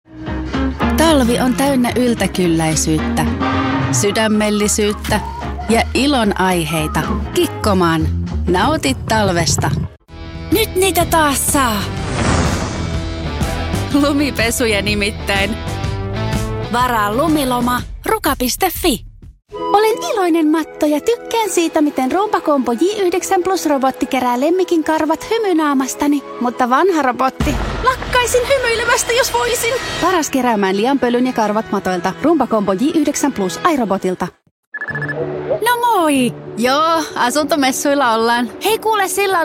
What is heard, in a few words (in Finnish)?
finnish female voice finnish commercial